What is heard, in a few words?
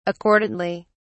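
"accordantly", British English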